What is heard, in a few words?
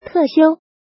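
"Tè xiū", Chinese; Tu vung nghi phep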